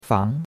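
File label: "fang", Chinese, second tone; Cours-de-Chinois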